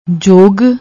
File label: "jog", Punjabi